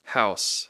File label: "house", English